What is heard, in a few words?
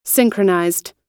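"synchronized", US English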